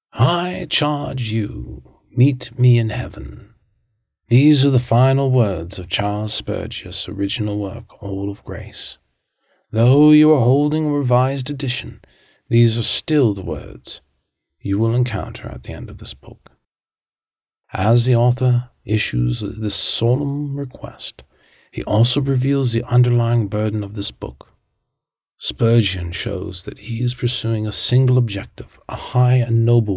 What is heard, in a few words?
Narration Non-Fiction